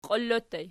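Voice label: "qiili" ergative plural, Archi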